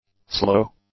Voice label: "slow", English; adjectives